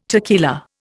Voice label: tequila, Indian